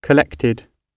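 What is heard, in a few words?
"collected", British English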